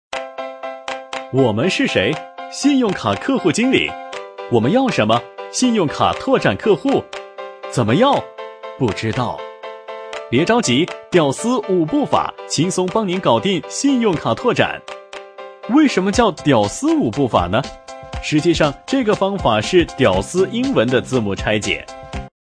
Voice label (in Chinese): 男 飞碟说类, 动画; 【男19号MG】飞碟说类